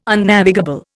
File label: "Unnavigable", Indian